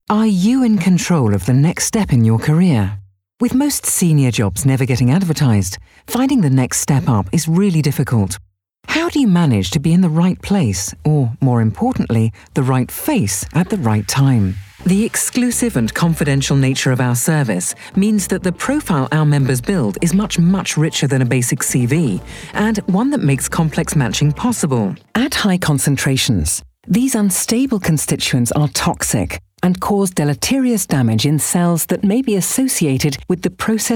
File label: Corporate